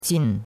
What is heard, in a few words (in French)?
"qin4", chinois; Cours-de-Chinois